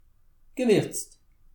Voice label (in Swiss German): "gewirzt", alsace